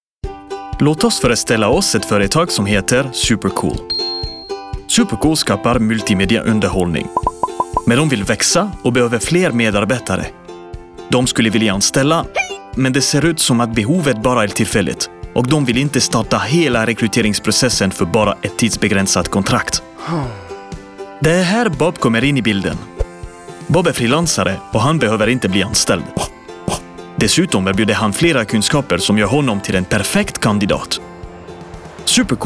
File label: swedish explainer videos